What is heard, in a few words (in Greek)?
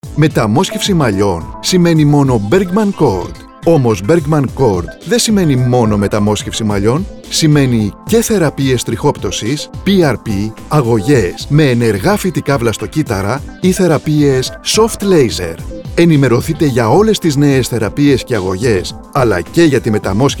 radio spots; trixoptwsi metamosxefsi malliwn therapies